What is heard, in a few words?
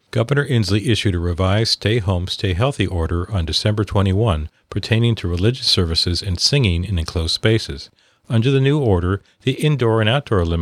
PSA; Singing Church Covid